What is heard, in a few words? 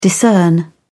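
"discern", British English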